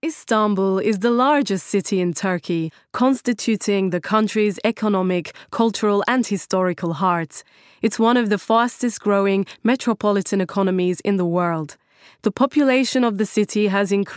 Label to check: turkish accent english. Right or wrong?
Right